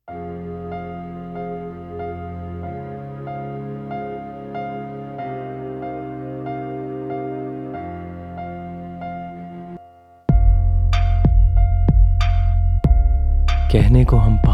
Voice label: Poem; gully boy 2019